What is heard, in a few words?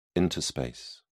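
"interspace", British English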